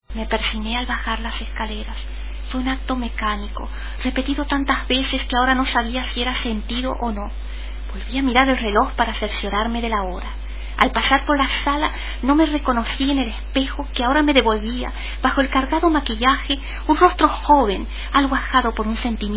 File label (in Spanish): leyendo